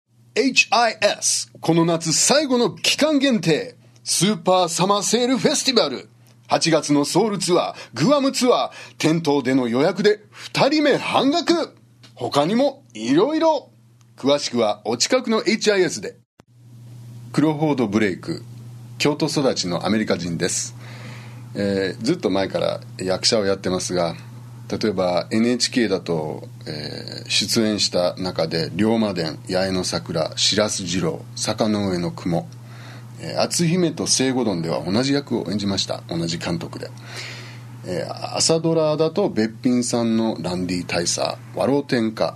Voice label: japanese, USA, M